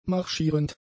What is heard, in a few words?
"marschierend", German; adjectives